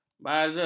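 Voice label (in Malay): "bazer", Malay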